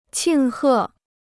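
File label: "庆贺", Chinese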